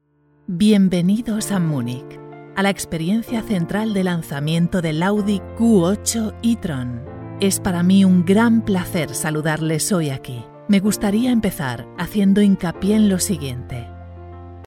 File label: CASTILLIAN SPANISH CONFIDENT, Spanish Commercial Voiceover, Female